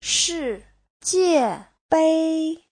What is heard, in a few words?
"shi4jie4bei1", Chinese; 世界杯; cúp Thế giới